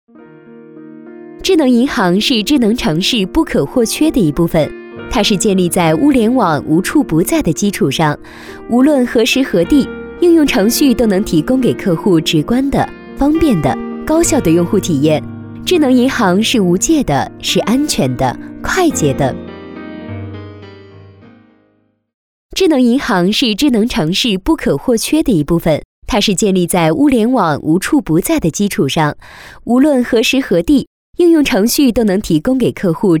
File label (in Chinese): 产品演示 智能银行 时尚